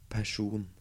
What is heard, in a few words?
"Person", Norwegian